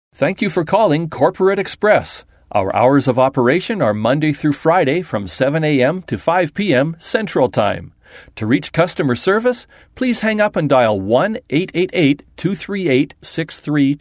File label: Voice Prompt